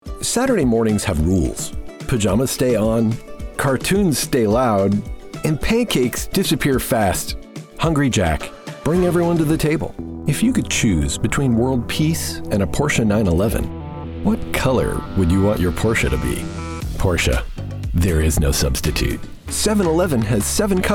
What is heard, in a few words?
Commercial